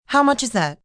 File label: How Much Is That, Questions, female; Talking Sound Effects; Sound Effects:Voice; VoiceBox